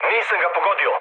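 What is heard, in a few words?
Serbian bomb failure mood high, speech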